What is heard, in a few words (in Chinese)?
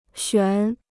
"悬", Chinese